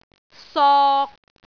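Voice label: "sok3", Cantonese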